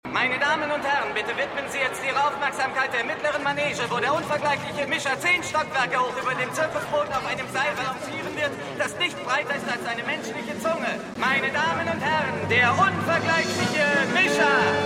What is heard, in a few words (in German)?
Ansager, Stimmproben; Seinfeld